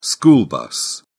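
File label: "school bus", British English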